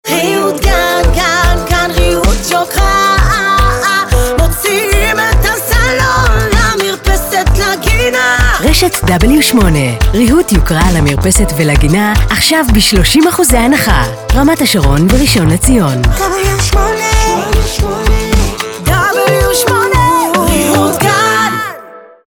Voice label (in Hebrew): פזמון מקורי קריינית; מבצע